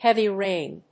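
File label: "heavy rain", English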